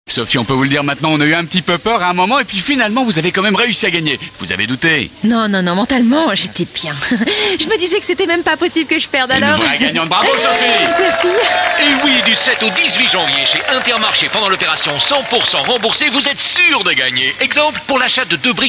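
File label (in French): nature, comédienne voix off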